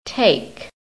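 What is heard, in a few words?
"take", American English; PHONETIC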